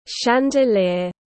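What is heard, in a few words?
"Chandelier", English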